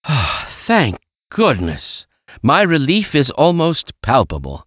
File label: relief, hostage; czero opforce; hctwin